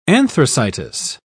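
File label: "anthracitous", British English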